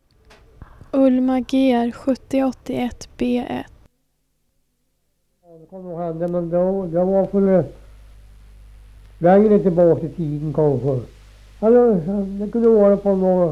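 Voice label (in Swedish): Ripsa Södermanland, dialekter; Svenska sagor; Folkminnen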